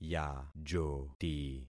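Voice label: "yajoti", concatenated syllables; Affixing experiment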